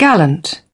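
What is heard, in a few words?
"gallant", British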